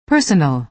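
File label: "personal", American English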